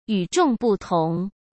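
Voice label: "与众不同", Mandarin Chinese; chinese flashcards; hsk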